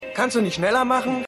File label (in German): Schueler